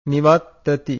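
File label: "nivattati", Pali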